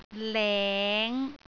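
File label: "leng5", Cantonese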